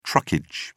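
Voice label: "truckage", British English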